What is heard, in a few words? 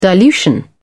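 "dilution", British English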